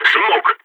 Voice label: smoke, balkan; vo